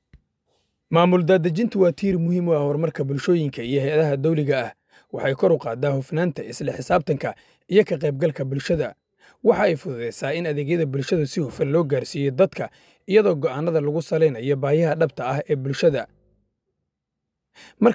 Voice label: Radio Recording Messaging